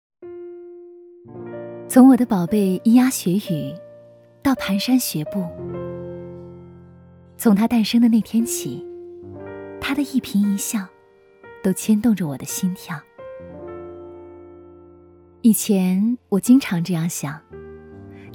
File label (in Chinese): 微电影 生活独白 温柔